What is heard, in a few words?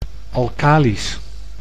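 "alkalisch", Dutch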